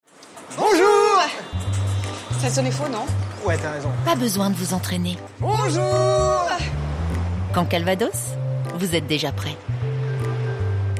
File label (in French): Pub TV; Caen Calvados